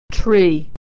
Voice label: "tree", pronunciation